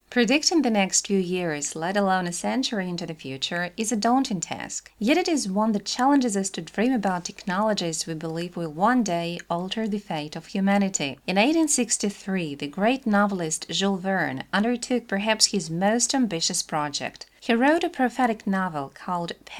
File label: Voice Eng